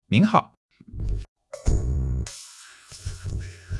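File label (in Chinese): "您好", Chinese; HSK1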